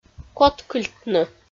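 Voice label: "коткыльтны", Komi, female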